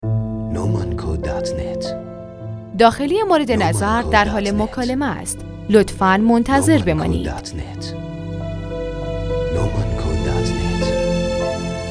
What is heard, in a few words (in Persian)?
صدای گویا برای زمان انتظار با صدای گوینده خانم